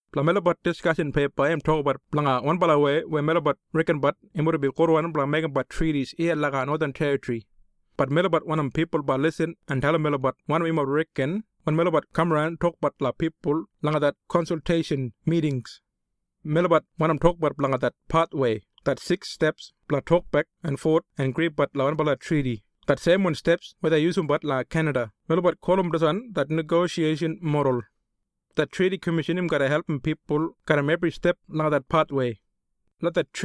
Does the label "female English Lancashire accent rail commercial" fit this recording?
No